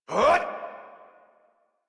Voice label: Adult Operator Melee Swing exert; New War